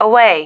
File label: "away", female